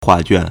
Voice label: "hua4 juan3", Chinese

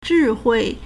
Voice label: "zhi4 hui4", Chinese

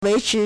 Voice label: "wei2chi2", Chinese

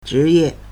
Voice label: "zhi2ye4", Chinese

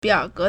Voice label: "biao3ge2", Chinese

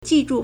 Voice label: "ji4 zhu4", Chinese

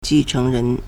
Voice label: "ji4 cheng2 ren2", Chinese

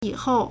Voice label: "yi3hou4", Chinese

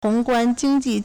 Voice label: "hong2 guan1 jing1 ji4", Chinese

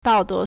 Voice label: "dao4 de2", Chinese

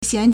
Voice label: "xian2", Chinese

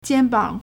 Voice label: "jian1 bang3", Chinese

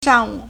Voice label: "shang4wu3", Chinese

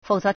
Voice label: "fou3 ze2", Chinese

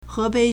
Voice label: "he2bei3", Chinese

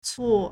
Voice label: "cuo4", Chinese

